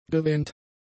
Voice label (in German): "gewähnt", german; adjectives